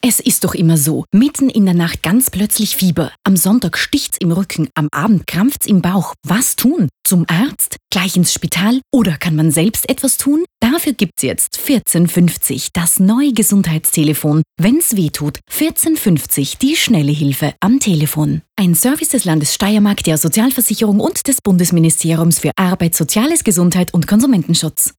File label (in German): TEXT weiblich; Bundesministerium Land Stmk; ORF STMK